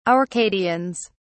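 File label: "arcadians", British English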